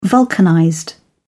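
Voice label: "vulcanized", British English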